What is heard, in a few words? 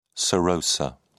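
"serosa", British English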